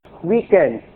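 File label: "weakened", English; verb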